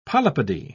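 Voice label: "polypody", British English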